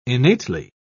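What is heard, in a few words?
"innately", British English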